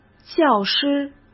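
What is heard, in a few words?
"教师", Mandarin Chinese; chinese flashcards; hsk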